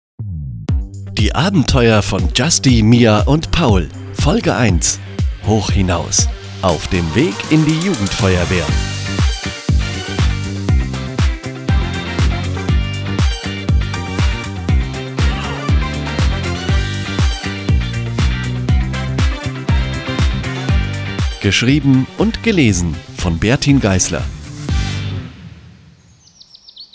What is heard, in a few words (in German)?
Hörbeispiel, Hörbuch